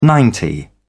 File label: "ninety", English